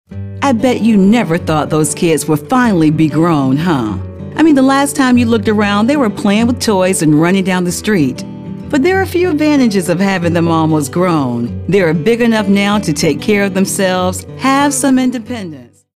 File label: caring